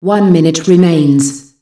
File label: "1 minute remains", announcer female; Unreal Tournament; ut2004